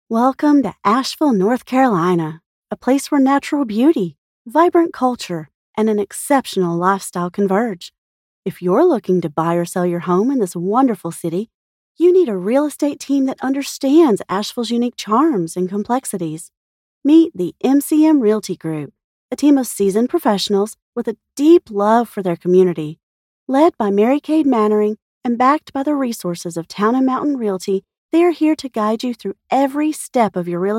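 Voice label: American English, Commercial General; GoLocalise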